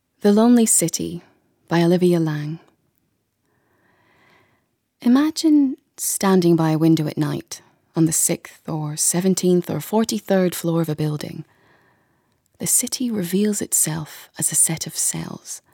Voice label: Radio Drama Examples